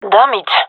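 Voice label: sorry, gendarmerie female; vo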